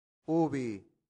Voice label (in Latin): "ubi", latin; santillana